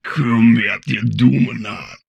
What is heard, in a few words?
humanoid portrait male; Stellaris; humanoids